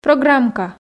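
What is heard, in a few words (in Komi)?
"программка" Komi, female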